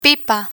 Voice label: "pipa", Spanish